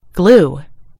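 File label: "glue", British English; gcompris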